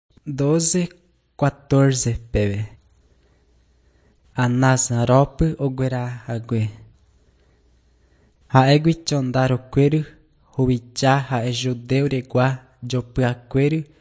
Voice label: john guarani mbya, bible